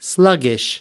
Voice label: "sluggish", us

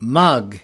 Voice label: "mug", us

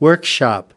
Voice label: "workshop", us

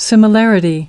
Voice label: "similarity", us

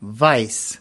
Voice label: "vice", us

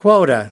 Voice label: "quota", us

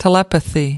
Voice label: "telepathy", us

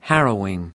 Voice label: "harrowing", us

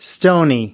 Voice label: "stony", us

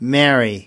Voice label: "merry", us

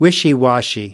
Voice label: "wishy washy", us

